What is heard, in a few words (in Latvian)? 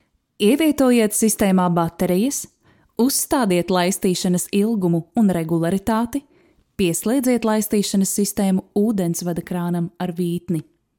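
Latvian, Information; voice demos; GoLocalise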